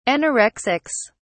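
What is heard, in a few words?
"anorexics", British English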